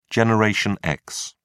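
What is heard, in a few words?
"generation x", British English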